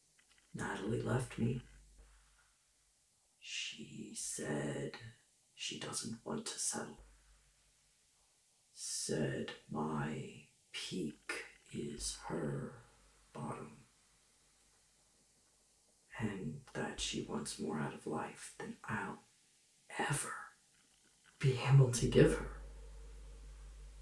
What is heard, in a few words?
american